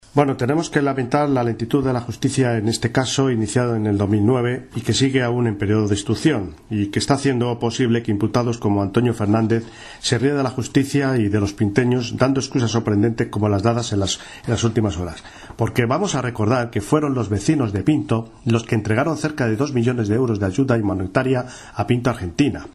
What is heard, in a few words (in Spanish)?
noticias